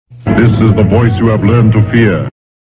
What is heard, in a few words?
voice of fear; Sci-fi Futuristic ringtone